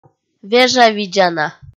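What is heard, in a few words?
"вежавидзана", Komi-Zyrian, female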